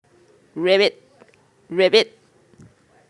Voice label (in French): Grenouille - Anglais